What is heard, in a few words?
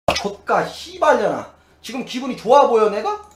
scout battle cry